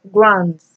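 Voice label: "guains", Nigerian Pidgin, female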